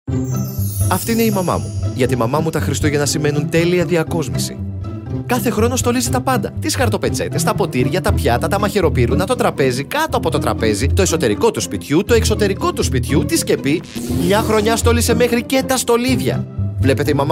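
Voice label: Greek, Commercial; GoLocalise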